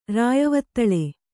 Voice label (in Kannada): "ರಾಯವತ್ತಳೆ", Kannada